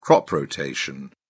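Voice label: "crop rotation", British English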